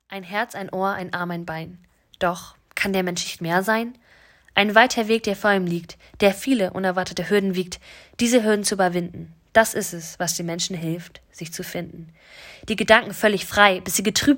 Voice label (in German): Poetry Slam